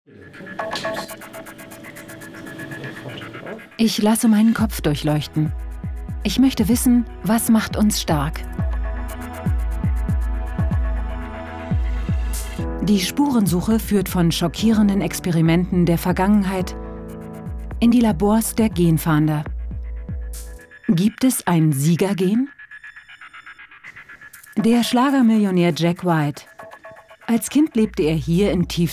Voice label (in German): deutsch frauen doku; sprecher